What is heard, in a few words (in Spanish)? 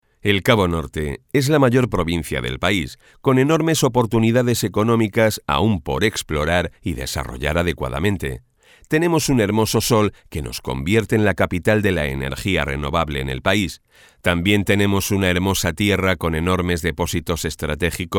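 corporativo España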